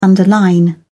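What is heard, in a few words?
"underline", English, British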